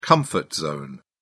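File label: "comfort zone", British English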